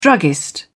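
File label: "druggist", British English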